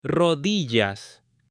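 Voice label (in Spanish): "rodillas" Spanish; CUERPO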